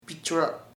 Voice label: embers, chamacoco; brasas

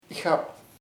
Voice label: little, chamacoco; pequeNo

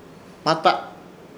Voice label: "Hmata", Ishir Chamacoco; mano

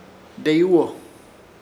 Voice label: "Deeyuwo", Ishir Chamacoco; pensamientos

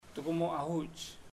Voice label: I saw ur house, chamacoco; Puerto Diana

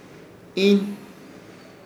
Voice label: "Iin", Ishir Chamacoco; tragar

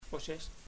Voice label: baby, chamacoco; Puerto Diana

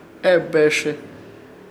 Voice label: "Ebẽrrze", Ishir Chamacoco; mandioca del monte

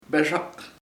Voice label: high, chamacoco; alto

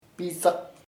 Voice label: ashes, chamacoco; cenizas

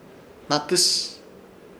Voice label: "Hnatɨs", Ishir Chamacoco; ave calpintero

